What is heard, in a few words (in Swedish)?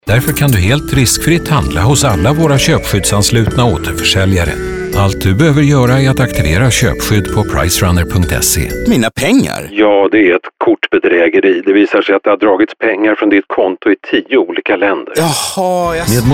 werbung